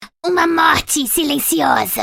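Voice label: voice portugues brasileiro; kennen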